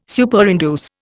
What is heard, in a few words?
"Superinduce", Indian